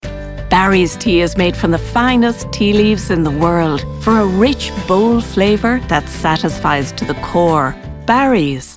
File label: Commercial